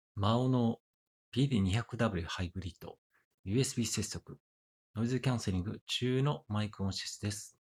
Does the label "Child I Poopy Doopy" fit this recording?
No